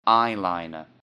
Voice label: "eyeliner", English; Jewelry and Cosmetics

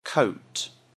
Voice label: "coat", English; Outdoor Clothes

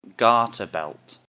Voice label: "garter belt", English; Underwear and Sleepwear

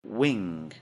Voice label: "wing", English; MEAT, POUTRY AND SEAFOOD

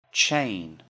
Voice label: "chain", English; Jewelry and Cosmetics

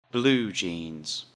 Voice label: "blue jeans", English; Outdoor Clothes

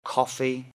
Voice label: "coffee", English; Common Prepared Foods

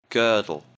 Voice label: "girdle", English; Underwear and Sleepwear

